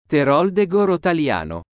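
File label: "Teroldego Rotaliano", Italian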